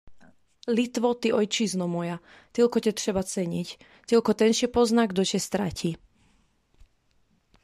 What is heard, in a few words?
voice sample polish